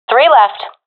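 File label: enemies left three, professional female; vo; agents; Source Model Packs; Counter Strike Model Pack; CS2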